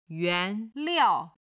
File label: "yuan2liao4", Chinese